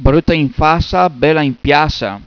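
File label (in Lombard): Bröta in fàsa, bèla in piàsa., bergamasco; PROVERBI BERGAMASCHI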